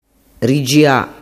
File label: "rigia", dialettu